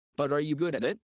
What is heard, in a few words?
fast; studying abroad